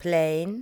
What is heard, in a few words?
"plein", english